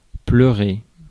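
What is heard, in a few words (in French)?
"pleurer", French; phonemes; Mots francais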